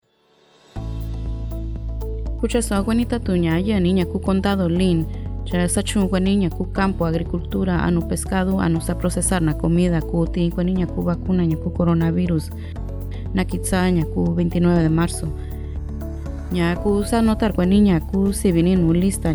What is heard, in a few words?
Mixteco Alto; Vaccine Radio Spots; covid19